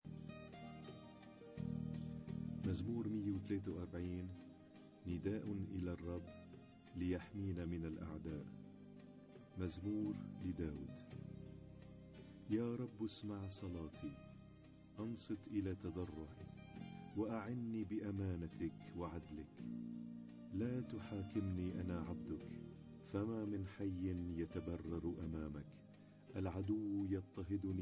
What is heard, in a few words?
Arabic Psalm Zaboor